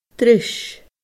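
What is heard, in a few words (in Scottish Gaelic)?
"dris", Scottish Gaelic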